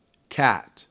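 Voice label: "cat", English; RhymeTest; bat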